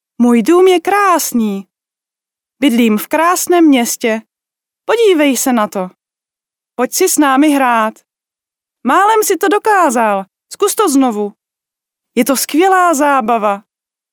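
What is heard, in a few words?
Czech female children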